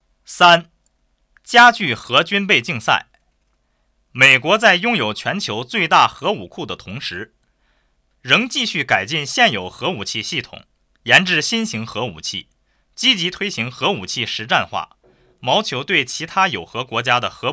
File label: junshi low; man